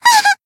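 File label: damaged, Japanese; tsukiha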